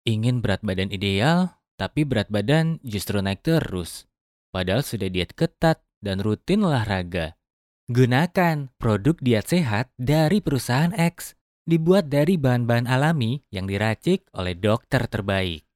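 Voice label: Indonesian male voice over, sales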